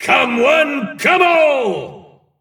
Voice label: Male Dwarf Play

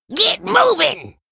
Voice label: Tram Mechanic Gnome Emote Attack

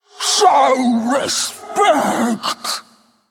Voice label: female faceless, Attack

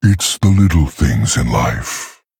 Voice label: Male Tauren

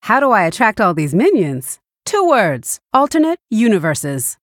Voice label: female human, Flavor

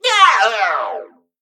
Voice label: Male Mechagnome Death

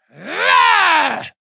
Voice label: Female Orc Attack

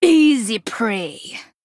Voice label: Female Troll Attack

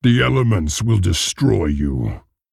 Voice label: Threaten

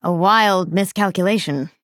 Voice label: Female Dryad Oops

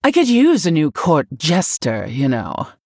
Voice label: Human Emote Threaten; Prestor

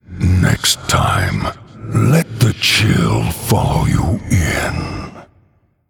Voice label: Male Undead; The Lich King

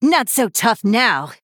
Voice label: Female Human Attack

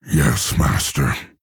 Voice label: Male Orc; Thrall

